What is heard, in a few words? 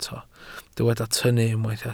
"tynnu", Welsh